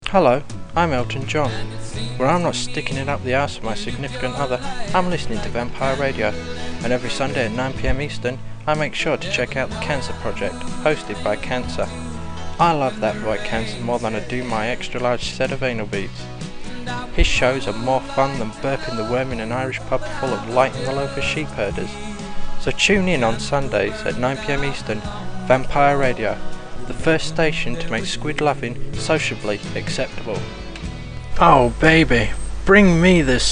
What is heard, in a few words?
Commercial; cancer project